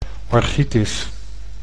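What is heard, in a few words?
"orchitis", Dutch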